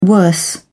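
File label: "worse", British English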